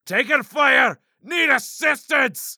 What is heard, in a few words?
Crossbowman Overwhelmed